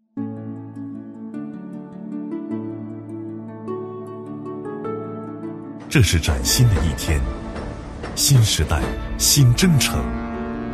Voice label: 男 形象片; 走心企业形象片样音; 广东卫视

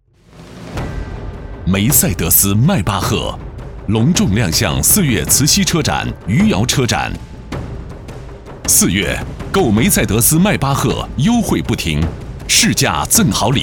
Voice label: 男 汽车广告; 迈巴赫; 销售类tvc

